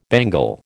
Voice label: "bangle", American

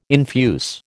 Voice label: "infuse", American

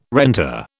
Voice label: "renter", British

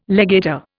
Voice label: "legator", Indian

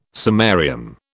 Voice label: "samarium", British

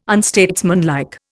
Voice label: "unstatesmanlike", Indian

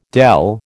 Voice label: "dell", American

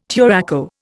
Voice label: "turaco", Indian

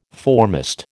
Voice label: "foremast", American

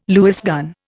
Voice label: "Lewis gun", Indian

